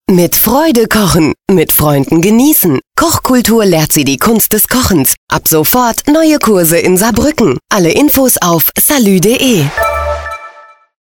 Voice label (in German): werbespot radio